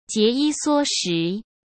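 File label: "节衣缩食", Mandarin Chinese; hsk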